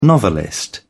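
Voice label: "novelist", British English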